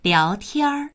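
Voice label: "liao2tian1", Mandarin Chinese; 聊天; おしゃべりする、チャットする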